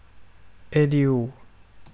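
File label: "adieu", Thai, male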